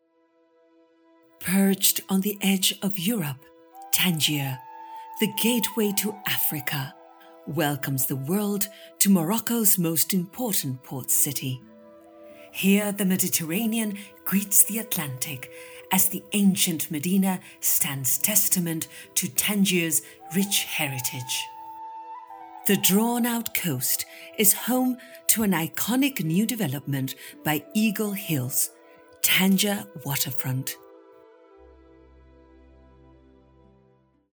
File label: english british real estate